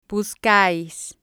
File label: "buscais" Spanish